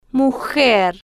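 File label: "mujer", slow, Spanish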